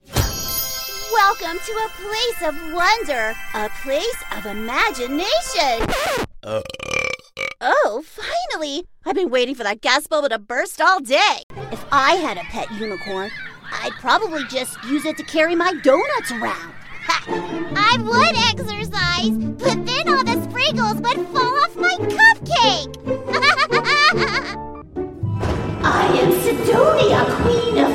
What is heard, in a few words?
Character Female